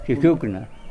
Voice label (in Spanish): Nawat palabras verde; pipil